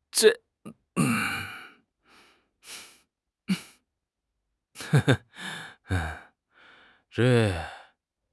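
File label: "zhe4", Mandarin Chinese; HSK1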